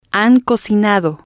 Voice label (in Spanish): "han Cocinado", spanish